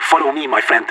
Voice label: radio follow me; player vo leet